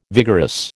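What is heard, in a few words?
"vigorous", American English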